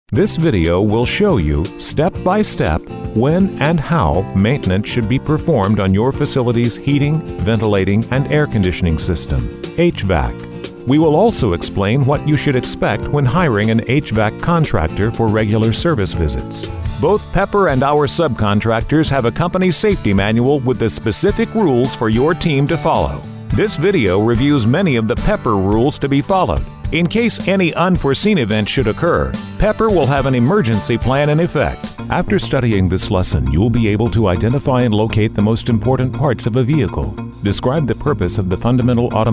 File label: English American e-learning; warm, gesprächig, intelligent, freundlich